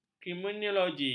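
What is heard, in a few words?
"kriminologi", Malay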